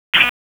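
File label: EMS Dispatch, p25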